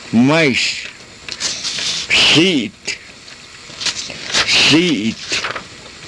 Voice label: "siit", karuk